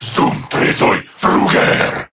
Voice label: Cover Me Corrupted Grineer Male